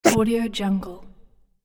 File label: small critter game character voice